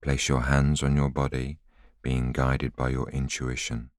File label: voiceover